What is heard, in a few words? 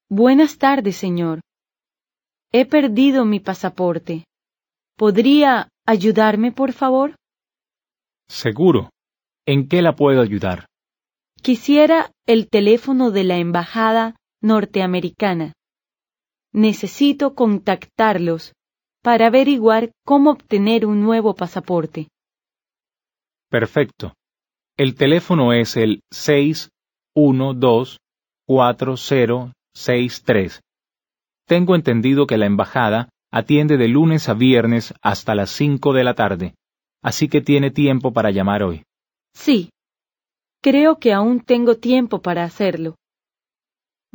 Dialogue, Spanish